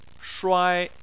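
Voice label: "shuai" tone 1, Chinese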